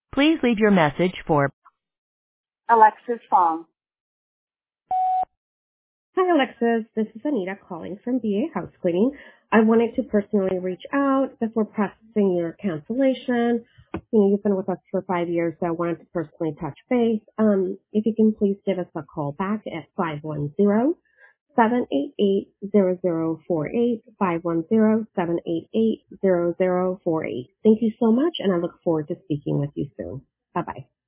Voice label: voicemail recording